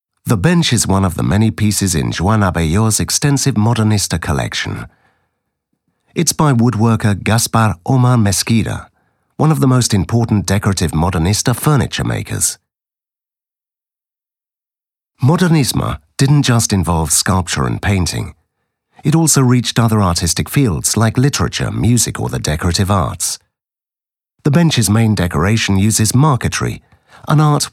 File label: audioguia english